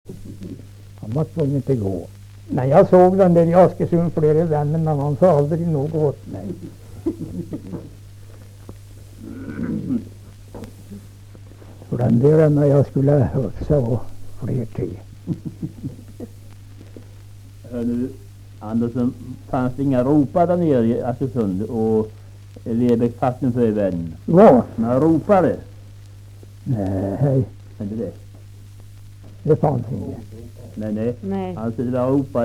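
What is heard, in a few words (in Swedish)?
Lerbäck Närke, dialekter; Folkminnen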